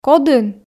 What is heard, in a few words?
"кодын", Komi